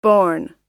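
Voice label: "borne", US English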